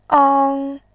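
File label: "ang", Mandarin Chinese, tone 1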